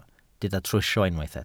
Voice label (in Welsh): "trwsio", Welsh